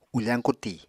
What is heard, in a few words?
"ufañ kuti", Apatani